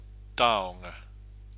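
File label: "taonga", Māori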